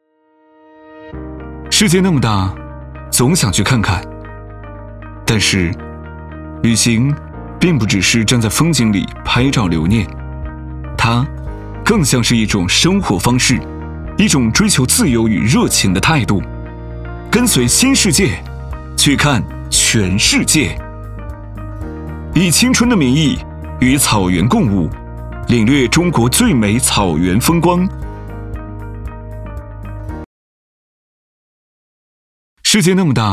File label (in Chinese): 男 时尚宣传片; 新世界